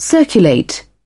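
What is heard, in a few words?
"circulate", British English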